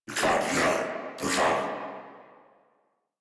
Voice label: Surprised, Battle Barks